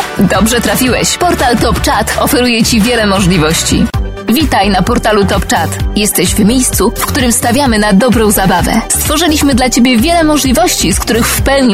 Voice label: female, Polish radio compilation